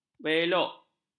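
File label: "belok", Malay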